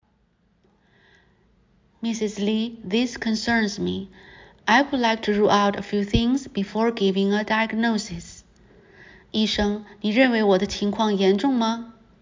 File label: Medical Certified Oral Mandarin Practice